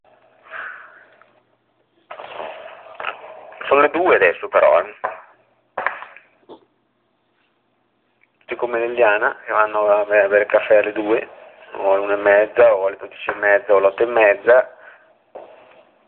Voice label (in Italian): telefonata